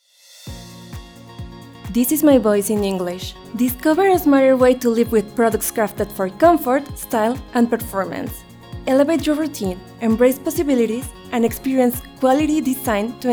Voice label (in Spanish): ingles Musicalizado